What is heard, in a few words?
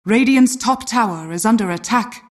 announcer; Dota 2; twr attack rad top